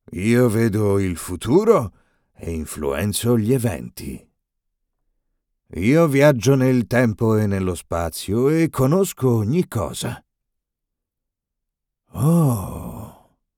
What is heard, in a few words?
italian video games